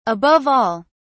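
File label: "above all", English, British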